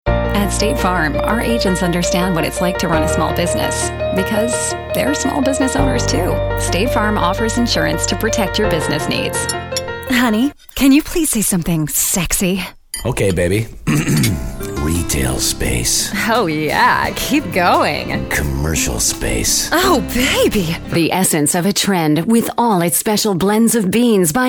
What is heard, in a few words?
Female